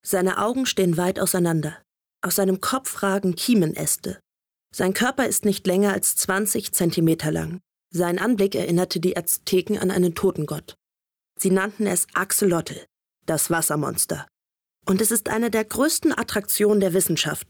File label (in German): Dokumentarischer Text